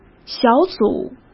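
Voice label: "小组", Mandarin Chinese; chinese flashcards; hsk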